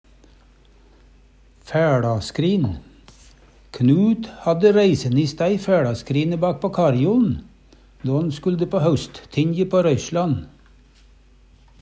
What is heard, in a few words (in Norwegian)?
fæLaskrin, Numedalsmål